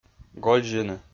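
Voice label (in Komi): "гользьыны", Komi-Zyrian, male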